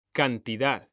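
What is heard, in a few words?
"cantidad", Spanish, male; mekanta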